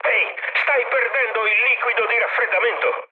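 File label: Italian damaged water mood med, speech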